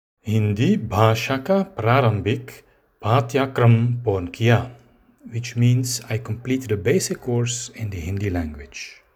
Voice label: Hindi